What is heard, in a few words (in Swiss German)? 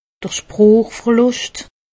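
"sproochverluscht", Haut Rhin; medecine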